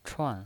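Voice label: "chuan", Mandarin Chinese pinyin voice, fourth tone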